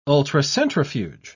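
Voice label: "ultracentrifuge", British English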